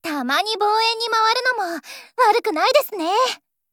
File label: JP; M14